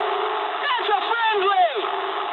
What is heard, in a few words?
eng player friendly fire mood high, speech eng